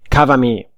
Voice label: "cover me", voice

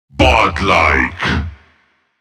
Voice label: botlike, announcer, male

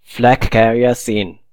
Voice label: "seen flag", voice

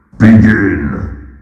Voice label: begin, robotic announcer

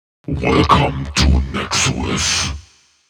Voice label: welcome, announcer, male

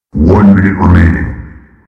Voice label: 1 minute remains, announcer

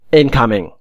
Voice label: "incoming", voice

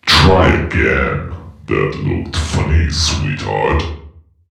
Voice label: looked funny, announcer, male

